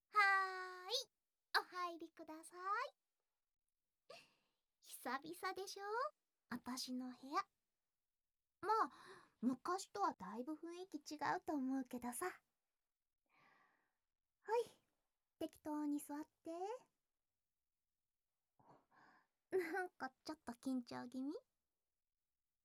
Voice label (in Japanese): SEなし 耳かき, ASMR